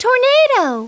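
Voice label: "tornado", English; Treasure Galaxy; Learning Company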